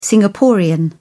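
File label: "singaporean", British English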